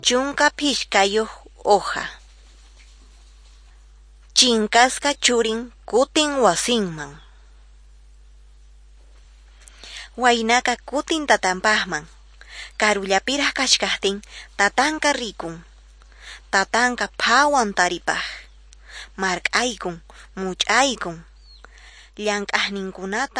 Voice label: quechua norte de bolivia apolo